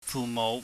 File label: "fuhmouh", Cantonese